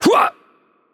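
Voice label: Spanish attack heavy voice; bloom minigame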